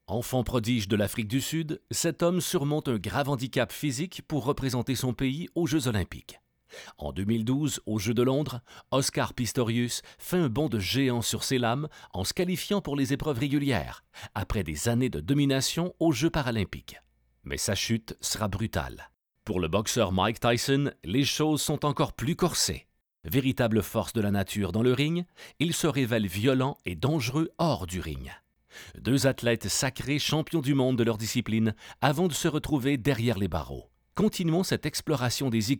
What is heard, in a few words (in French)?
Narration